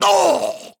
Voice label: Male Dwarf Death